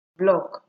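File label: "block", Nigerian Pidgin, female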